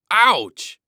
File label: Human Male Ouch